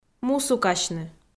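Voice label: "мусукасьны", Komi, female; kpv audio dict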